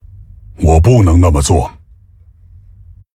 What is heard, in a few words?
Male Tauren, zh; ERROR PLAY